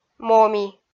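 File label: "моми" Komi, female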